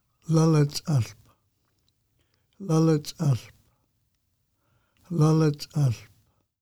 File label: Tall Oregon Grape Musqueam name pronunciation